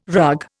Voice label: "rug", Indian